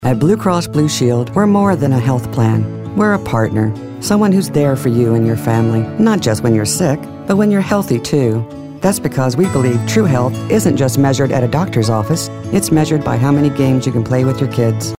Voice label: caring concerned thoughtful

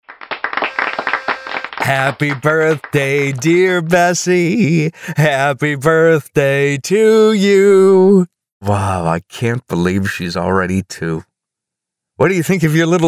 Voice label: real people fathers humorous

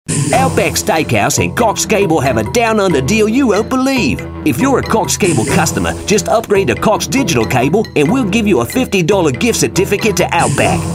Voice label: australian

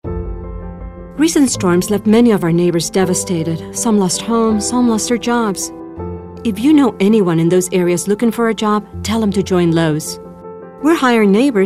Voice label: warm

